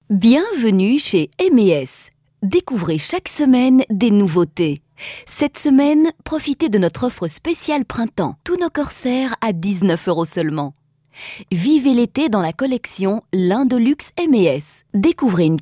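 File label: French Belgian narration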